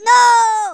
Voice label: "Nooo", Angry Scots; Worms Armageddon

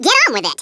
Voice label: "hurry", wacky speech

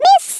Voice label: "missed", Swedish

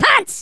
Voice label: "BUMMER", Angry Scots; Worms Armageddon

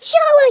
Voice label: "WATCH THIS", Dutch; Worms Armageddon

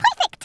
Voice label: "perfect", Brooklyn; Worms Armageddon

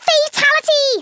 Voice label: "FATALITY", Scouser

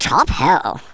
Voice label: "perfect", speech; Worms Armageddon

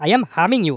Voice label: "watch this", Speech; Worms Armageddon; The Raj

